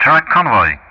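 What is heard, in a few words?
"truck convoy", English; Ka-50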